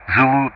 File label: "Zulu", Speech ENG; Ka-50; Alphabet